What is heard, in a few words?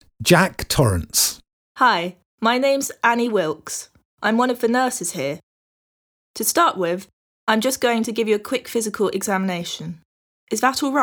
Conversation; Bedside Manner Beginner